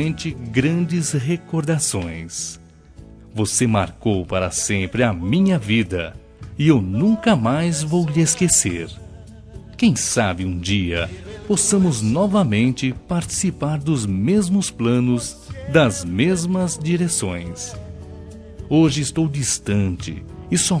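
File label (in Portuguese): desculpa e saudade, masculino; Telemensagens; Desculpas; Telemensagens 2017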